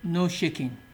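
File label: "no shaking", Nigerian Pidgin, male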